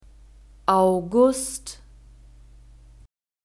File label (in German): "August", German; masculine